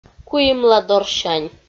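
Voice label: "куимладорсянь", Komi, female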